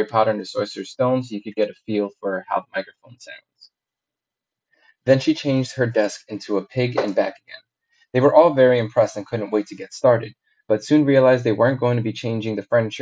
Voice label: Panasonic RB M700B mic

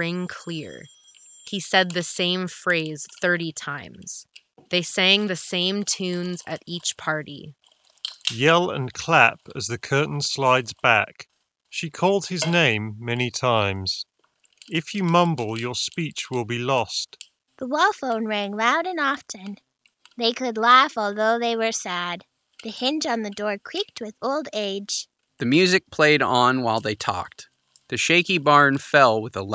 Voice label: Logitech G Pro X Office microphone sample

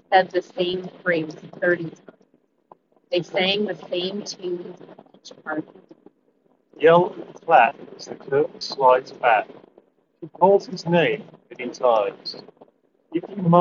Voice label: Wind microphone sample; Tozo T6

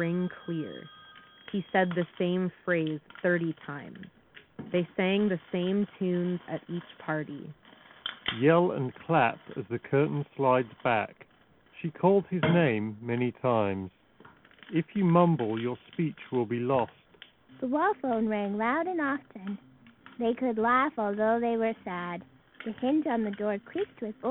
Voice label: Office microphone sample; Beats Solo3

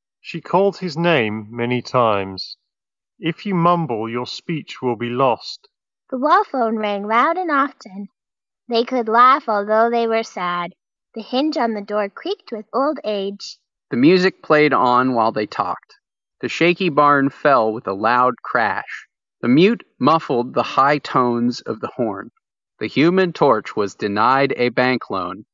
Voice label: JBL Tune230NC TWS Ideal microphone sample

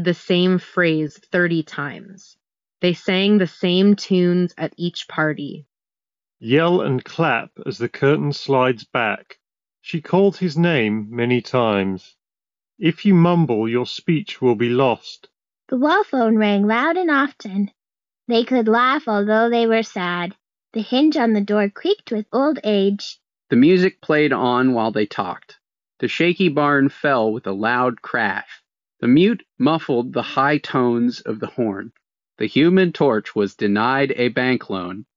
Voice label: Ideal microphone sample; Anker Soundcore AreoFit Pro

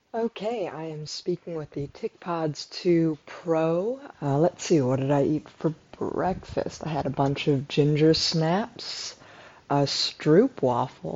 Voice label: Mobvoi TicPods 2 Pro microphone voice

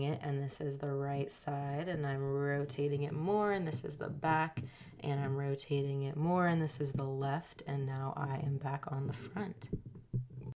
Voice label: JLab Talk PRO omnidirectional microphone sample

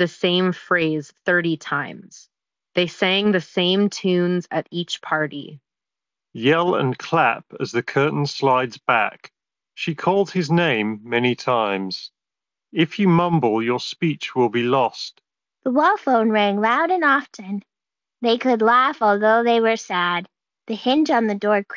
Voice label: Beats Solo3 Ideal microphone sample

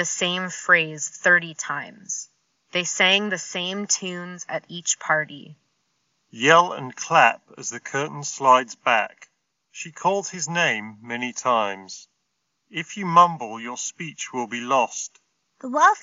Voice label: Ideal microphone sample; Bose Sport Earbuds

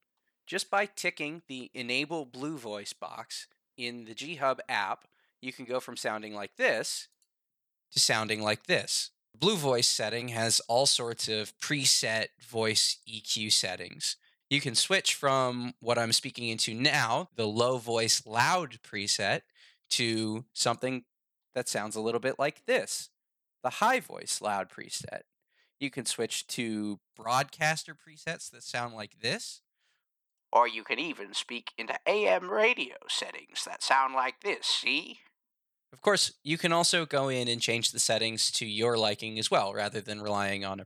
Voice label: Logitech G Pro X Mic sample

